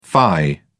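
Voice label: "fie", English, British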